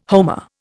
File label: "homer", Indian